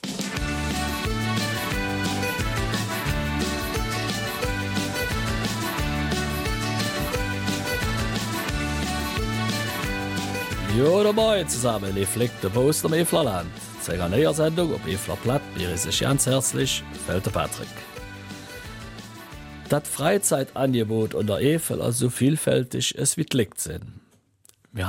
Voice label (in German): Eifeler Mundart; 40 Jubiläum des Aero- und Modellclubs Feuervogel Büllingen